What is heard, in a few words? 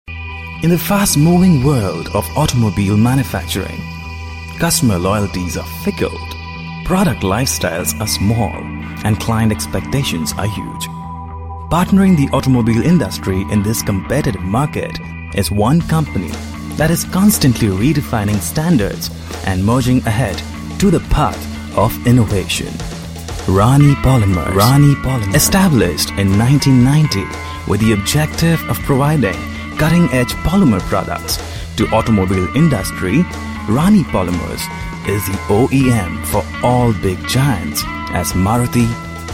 male voice